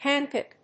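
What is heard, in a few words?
"panpipe", English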